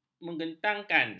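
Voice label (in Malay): "mengentengkan", Malay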